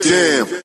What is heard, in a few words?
"damn", expletives